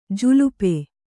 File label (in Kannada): "ಜುಲ್ಪಿ", Kannada